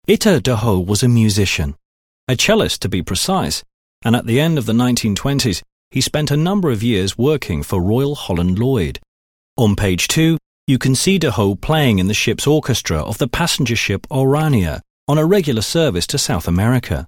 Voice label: english british audiobooks